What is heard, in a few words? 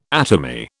"atomy", British